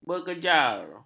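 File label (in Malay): "berkejar", Malay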